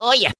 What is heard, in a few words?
"oh yeah" cartoon; ooh ahh